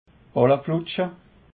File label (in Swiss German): "ballepfuschte", alsace